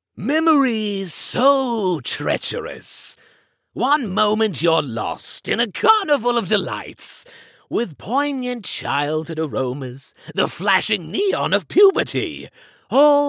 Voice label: english british impersonations